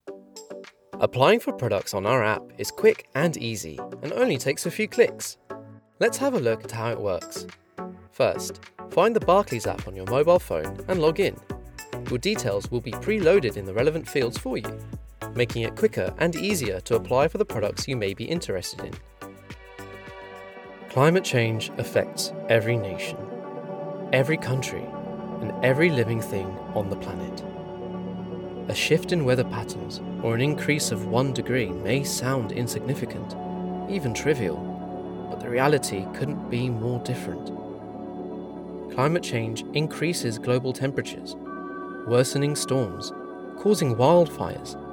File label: Corporate